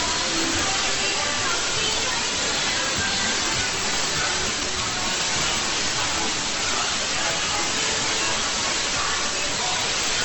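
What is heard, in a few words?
Radio Thailand 4 Pass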